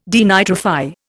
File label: "denitrify", Indian